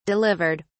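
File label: "delivered", British English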